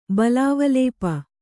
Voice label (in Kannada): "ಬಲಾವಲೇಪ", Kannada